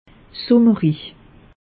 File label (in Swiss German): "summerlich", alsace; meteo